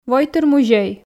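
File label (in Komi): войтыр музей, Komi, female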